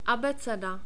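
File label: "abece", Czech, male; short vowels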